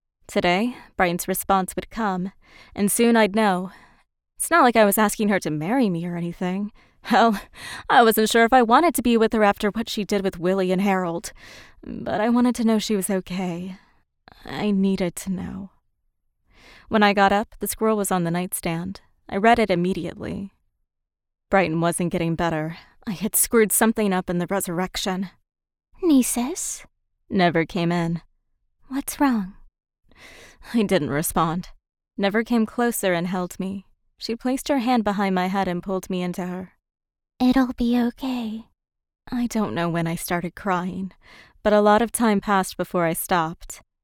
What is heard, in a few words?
Narration